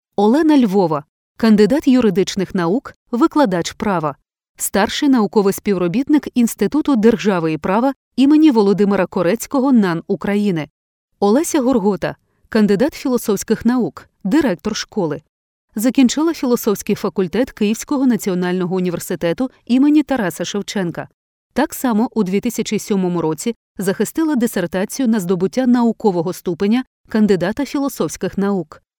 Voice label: ukrainian documentaries

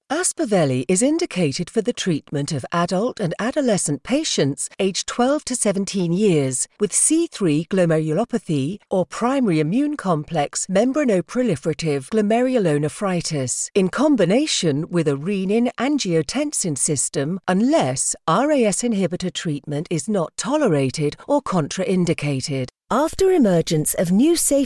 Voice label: english british medical narration

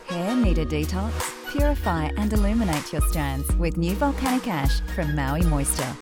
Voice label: english australian

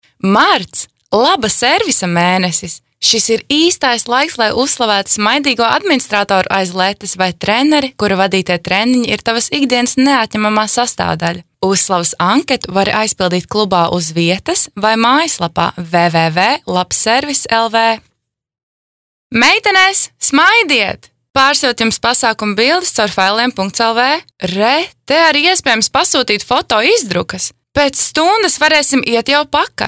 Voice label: latvian commercial

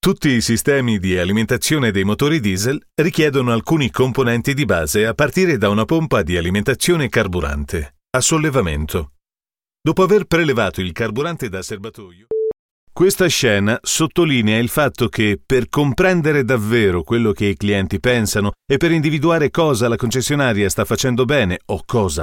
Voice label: italian training e-learning

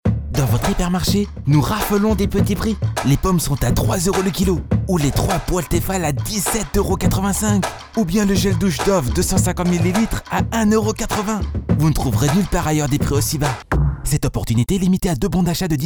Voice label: french promos

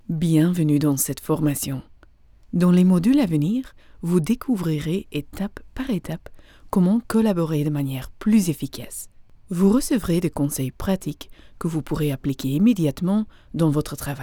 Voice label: french e-learning

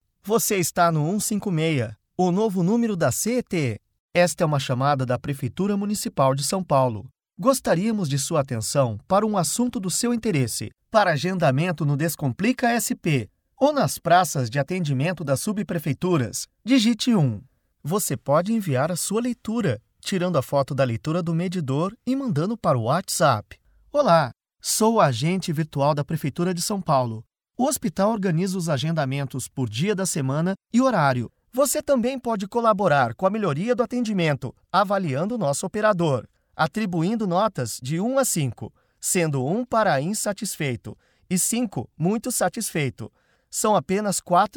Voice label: portuguese brazilian ivr

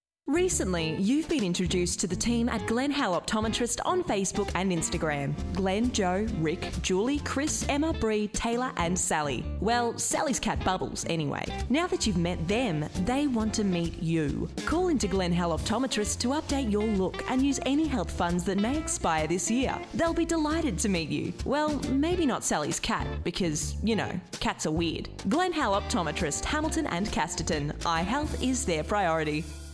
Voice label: english australian narration

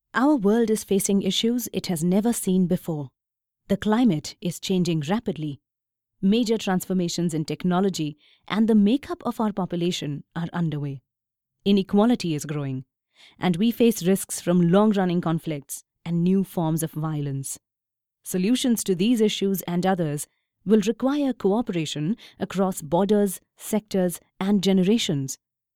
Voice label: english indian narration

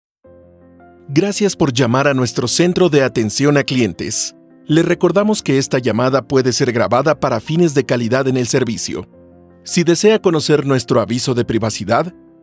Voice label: spanish latin american ivr

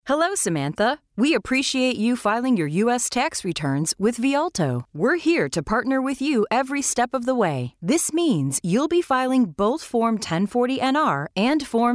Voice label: english american e-learning

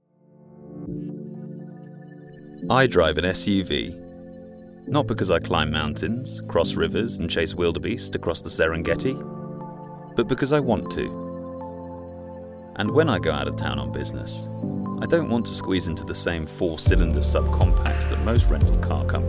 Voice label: english british automotive; locutor de doblaje en inglés (inglés del sur británico)

